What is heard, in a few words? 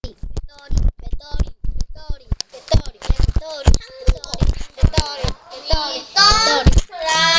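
"battery", spoken word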